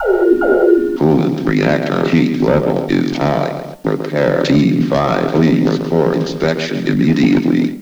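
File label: Crate Storage announcement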